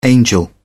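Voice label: "angel", British English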